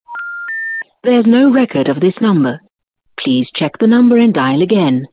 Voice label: Netherlands incorrect number; KTN